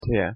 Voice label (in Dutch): "te" Faeröers; thee-in-andere-talen